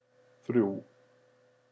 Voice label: "þrjú" Icelandic